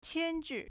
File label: "qian1 zhi4", Chinese